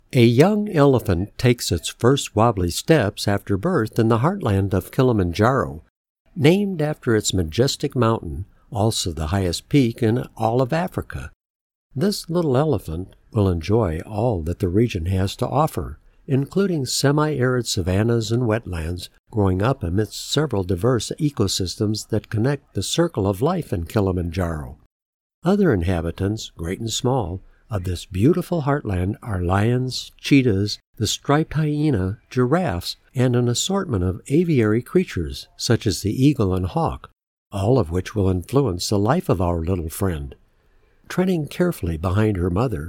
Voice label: Television Documentary; Elephants Of Kiljimanjaro